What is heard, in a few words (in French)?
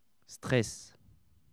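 "stress", French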